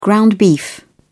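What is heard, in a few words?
"ground beef", British English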